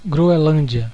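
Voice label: "greenland", Brazilian Portuguese; gcompris; geography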